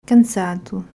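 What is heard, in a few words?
"Cansado", Portuguese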